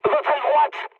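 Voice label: right wing, mood medium, French; War Thunder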